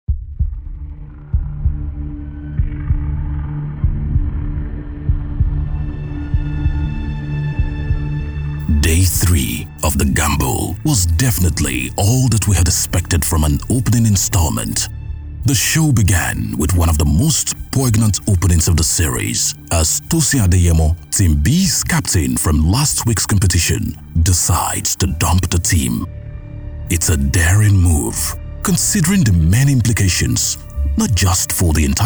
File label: english african narration